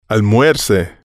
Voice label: almuerce, Spanish

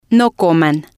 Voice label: no coman, Spanish

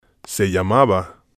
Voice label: "se llamaba", spanish

